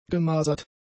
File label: "gemasert", German; adjectives